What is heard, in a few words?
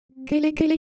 Ewe Motion, ideophones